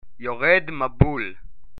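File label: rain, Hebrew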